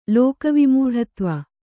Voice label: "ಲೋಕವಿಮೂಢತ್ವ", Kannada

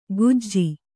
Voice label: "ಗುಜ್ಜಿ", Kannada

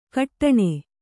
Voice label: "ಘಟ್ಟಣ", Kannada

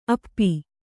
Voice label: "ಅಪ್ಪೆ", Kannada

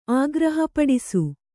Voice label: "ಆಗ್ರಹಪಡಿಸು", kannada

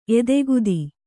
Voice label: "ಎದೆಗತ್ತಿ", Kannada

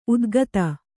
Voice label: "ಉತ್ಖಾತ", Kannada

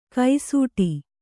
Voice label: "ಕೈಸೂಟಿ", kannada

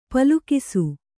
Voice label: "ಪಲುಕಿಸು", kannada

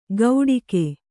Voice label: "ಗೌಡಿಕೆ", Kannada

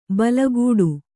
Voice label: "ಬಲಗೂಡು", kannada

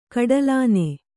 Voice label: "ಕಡಲಾನೆ", Kannada

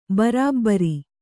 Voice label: "ಭರಭಾರಿ", kannada

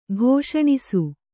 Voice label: "ಕ್ಷಣಶಃ", Kannada

